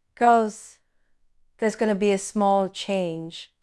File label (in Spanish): Voice Acting es F0